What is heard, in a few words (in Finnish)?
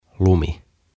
"Lumi" "Snow"